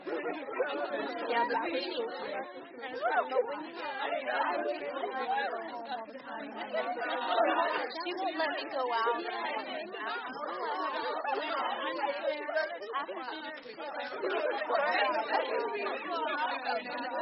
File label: Teen Girls Chatting